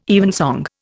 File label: "evensong", Indian